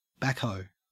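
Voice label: "backhoe" Australian English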